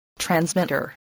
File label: "transmitter", voice